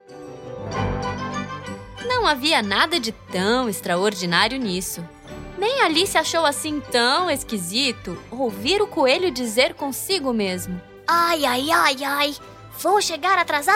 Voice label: portuguese brazilian audiobooks